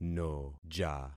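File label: "noja", concatenated syllables; Affixing experiment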